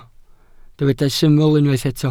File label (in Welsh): "syml" Welsh